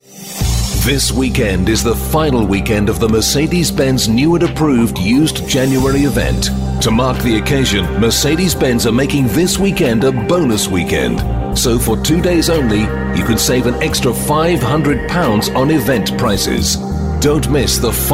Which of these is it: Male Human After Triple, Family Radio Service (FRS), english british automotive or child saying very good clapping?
english british automotive